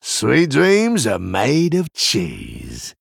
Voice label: Male Human Play

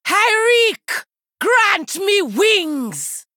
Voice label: Female Troll Play

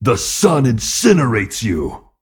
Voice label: Male Anubisath Attack

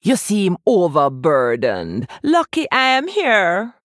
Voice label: Female Troll

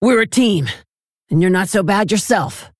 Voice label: Female Orc In Game Turn; Rokara

